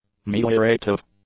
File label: "meliorative", english; adjectives